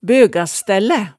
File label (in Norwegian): "bøgastællæ", hemsedalsmaalet tale